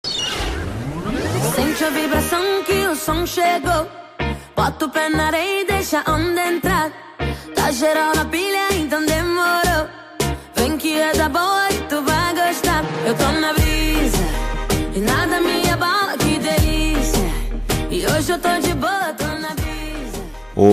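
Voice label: male; dm geral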